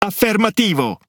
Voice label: Speech Quick Commands Aye Aye, IT; World Of Warships